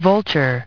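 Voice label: "vulture", English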